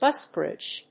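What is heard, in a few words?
"BUS BRIDGE", English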